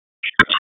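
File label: Raleigh Police Southwest District Tactical, p25; wake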